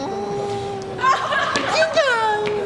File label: Cute Voice Message Ringtone